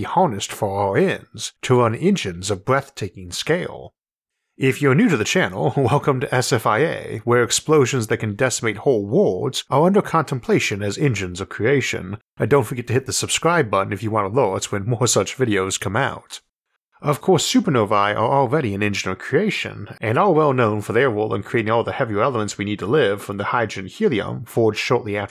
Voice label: killing stars narration only; isaac arthur

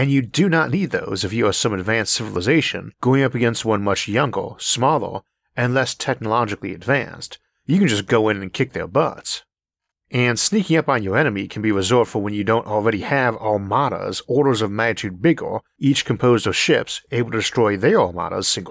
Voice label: stealth spaceships narration only; isaac arthur

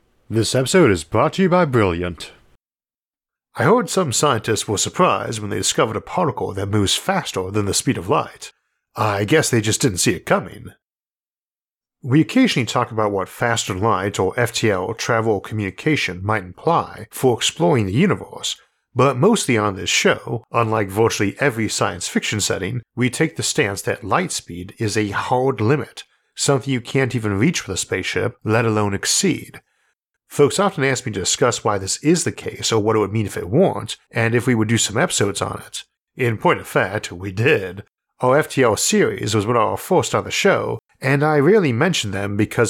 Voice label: cheating reality narration only

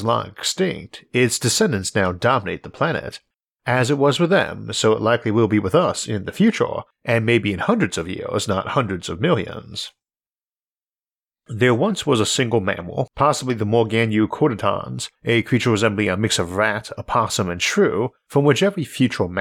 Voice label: post human species narration only

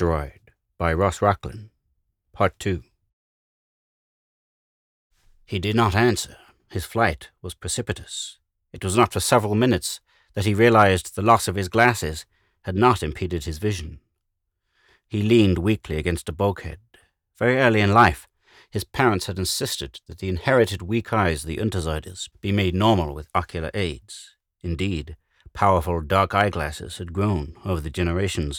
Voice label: free audiobook short sci fi; x marks the asteroid 2 of 2; short sci fi volviii

